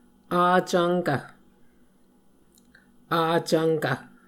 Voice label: "avcvnkv", muscogee